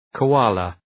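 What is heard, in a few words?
"koala", English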